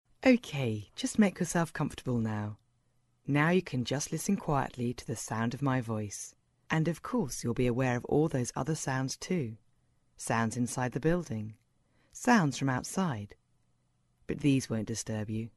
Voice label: relaxation

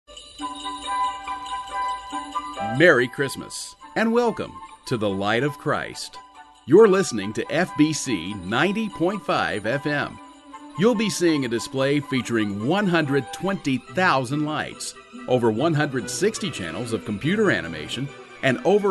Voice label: narration

